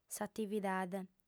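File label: "s'atividade", female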